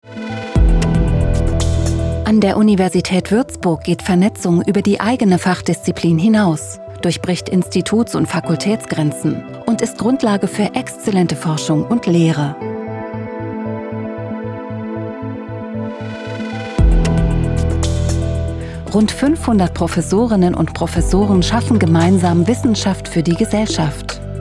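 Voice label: german e-learning, Femelle; doubleuse professionnelle allemande; Apprentissage en ligne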